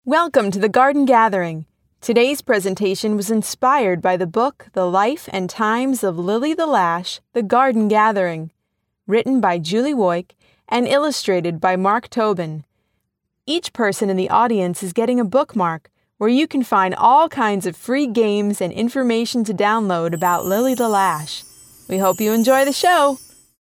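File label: english us female informative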